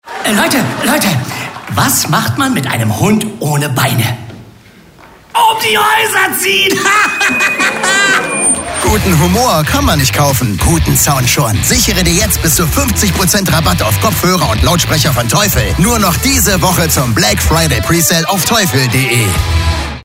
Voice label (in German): radiowerbung hund; teufel